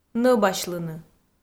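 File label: "нӧбасьлыны" Komi-Zyrian, female; kpv audio dict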